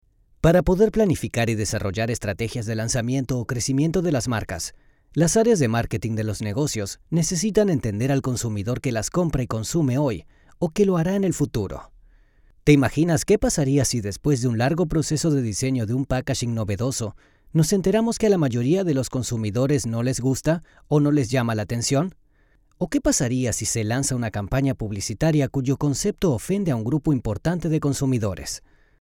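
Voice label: Latin American Spanish Neutral M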